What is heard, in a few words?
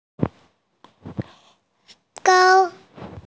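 "gao1", Mandarin Chinese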